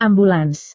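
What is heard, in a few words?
"ambulans", Indonesian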